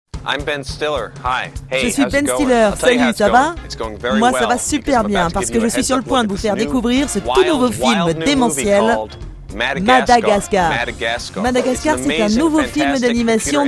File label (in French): Dynamique enjoue personnages; Madagascar